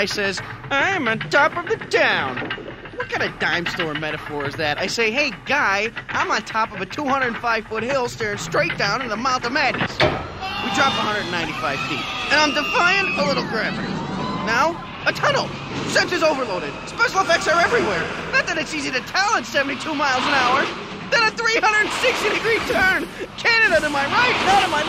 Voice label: Roller Coaster Rider